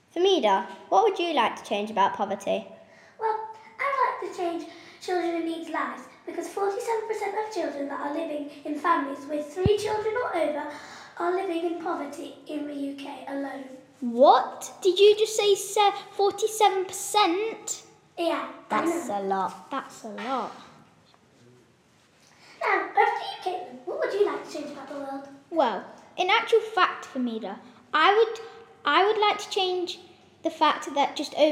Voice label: group podcast